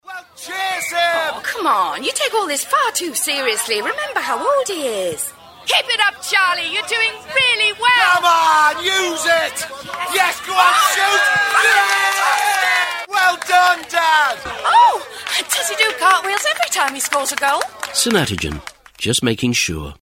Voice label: Commercial; Sanatogen

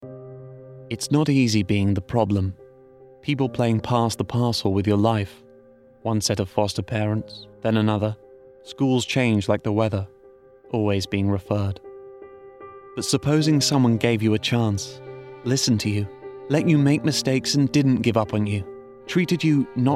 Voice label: RP Charity; Barnardos

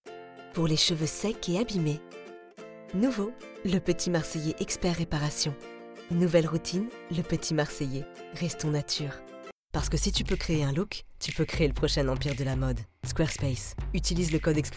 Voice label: French Commercials

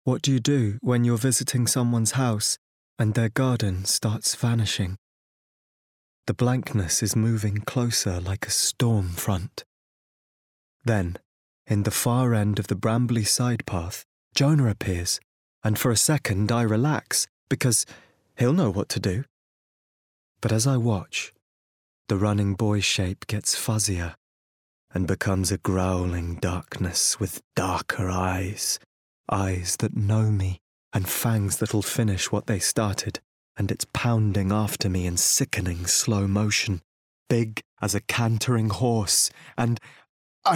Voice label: Book Reading

